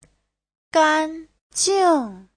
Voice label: "gan1 jing4", chinese; Giáo trình Hán ngữ